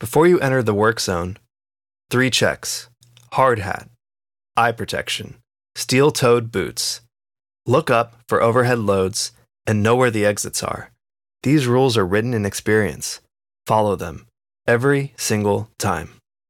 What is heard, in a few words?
elearning industrial safety; osha